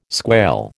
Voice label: "squail", American

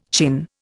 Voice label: "chin", Indian

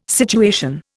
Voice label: "situation", Indian

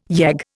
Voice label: "yegg", Indian

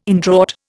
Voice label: "indraught", Indian

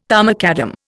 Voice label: "tarmacadam", Indian

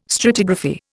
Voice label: "stratigraphy", Indian

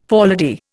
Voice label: "forelady", Indian

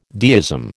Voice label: "deism", American

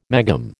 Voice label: "megohm", American

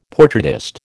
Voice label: "portraitist", American

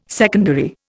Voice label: "secondary", Indian

